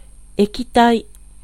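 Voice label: Japanese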